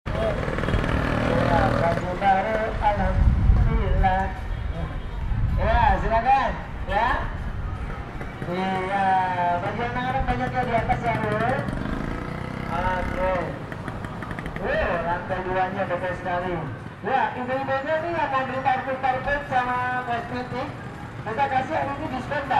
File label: strange voice, electro gamelan; jakarta